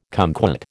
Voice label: "cumquat", American